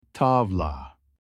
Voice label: backgammon in turkish